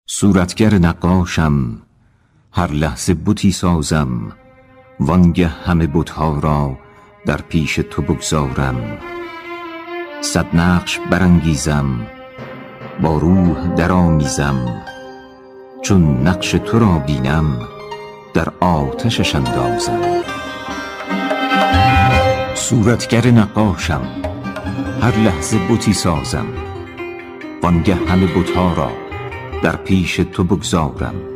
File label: farsi male; dictor; dictor-sounds